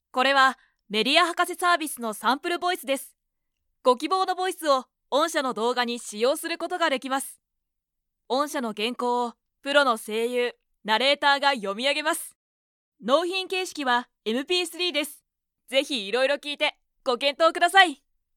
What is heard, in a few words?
boy, narration sample voice women